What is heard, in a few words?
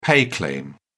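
"pay claim", British English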